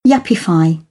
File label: "yuppify", British; ə - ɪ; English